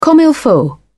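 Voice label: "comme il faut", English, British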